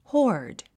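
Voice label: "horde", English word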